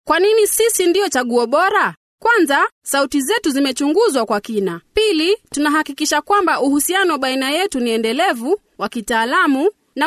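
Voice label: Swahili, female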